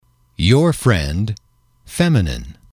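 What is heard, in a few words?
your friend, English, male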